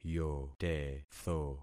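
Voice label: "yotetho", concatenated syllables; Affixing experiment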